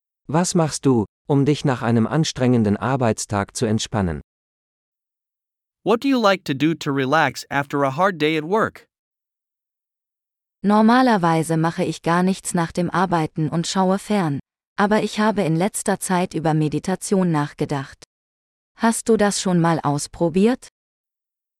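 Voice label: Relaxing - Dialogue (with translations), German; de-en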